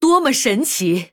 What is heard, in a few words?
Female Human Wow, zh